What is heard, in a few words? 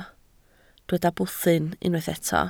"bwthyn", Welsh